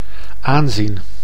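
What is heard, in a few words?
"aanzien", Dutch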